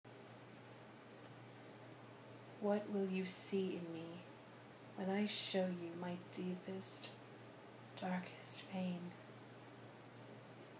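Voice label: [erotic poetry][sensual][intimate]; fsub; F4A; Nothing But Yours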